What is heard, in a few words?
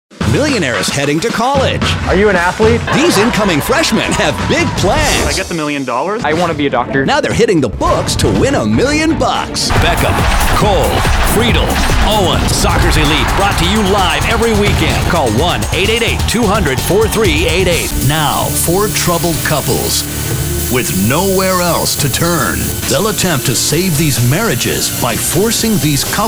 promos